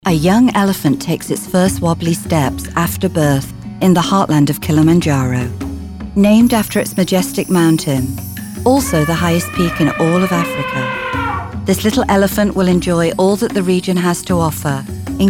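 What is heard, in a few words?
english british female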